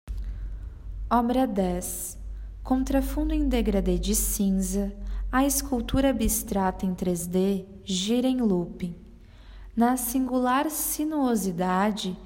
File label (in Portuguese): Audiodescricao; Exposição; Galeria Virtual